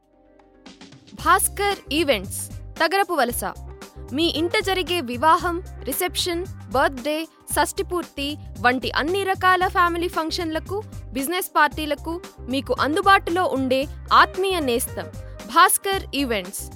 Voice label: Advert Telugu

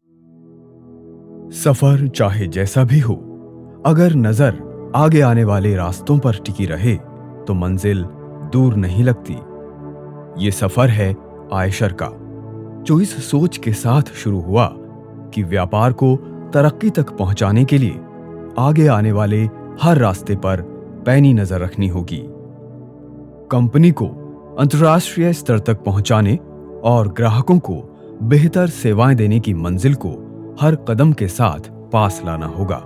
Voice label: hindi

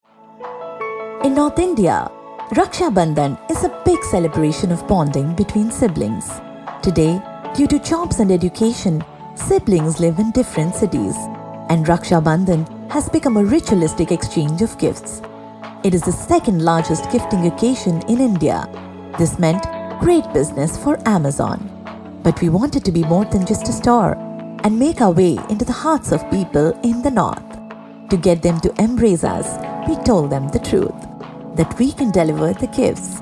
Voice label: Eng; Amazon

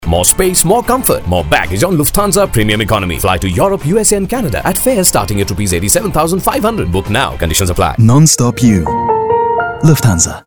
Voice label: promo eng

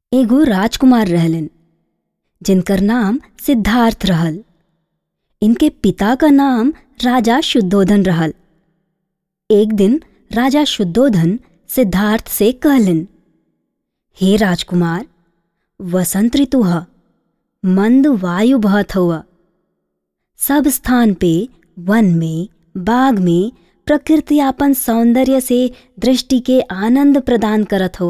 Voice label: story Bhojpuri

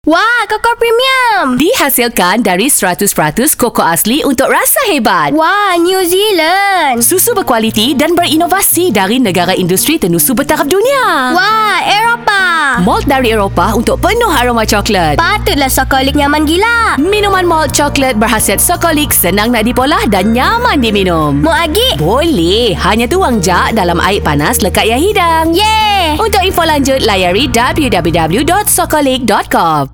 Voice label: commercial malay

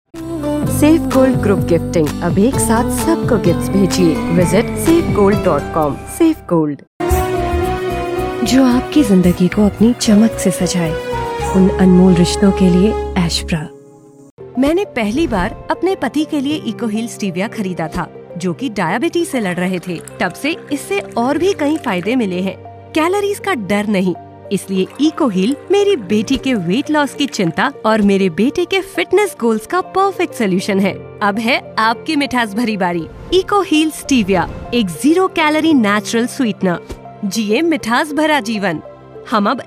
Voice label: Hindi Ads

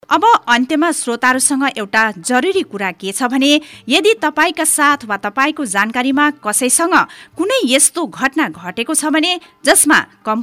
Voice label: promo nepali

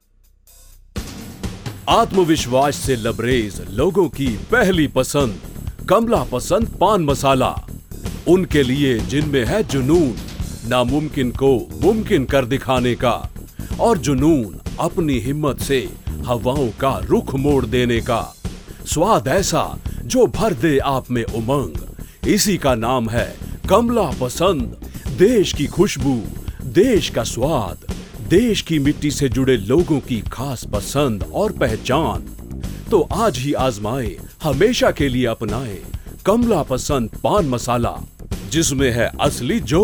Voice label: promo, hindi

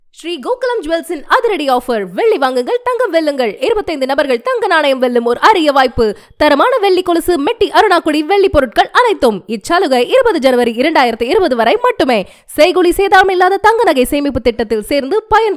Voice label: AD tamil

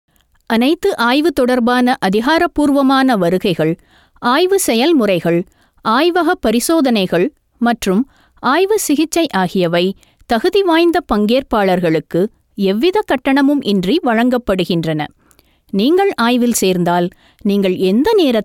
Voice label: Tamil Narration

